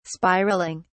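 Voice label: "spiralling", British English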